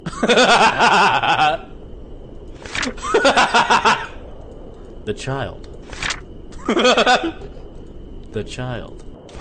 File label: huhuhaha the child